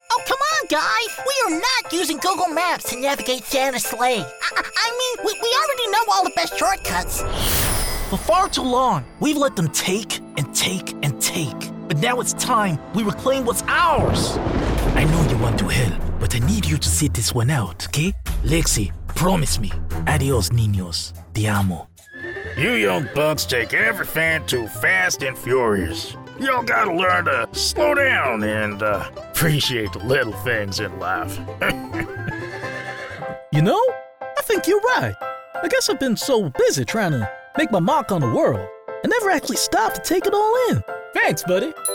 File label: Animation